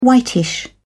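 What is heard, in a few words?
"whitish", British English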